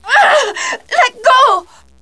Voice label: surprise; infection